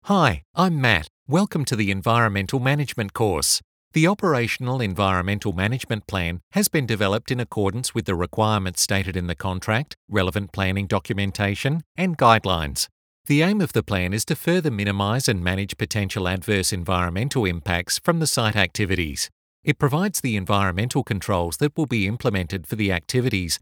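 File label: E-Learning, American English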